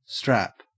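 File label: "strap", Australian English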